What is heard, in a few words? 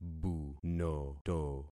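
"bunoto", concatenated syllables; Affixing experiment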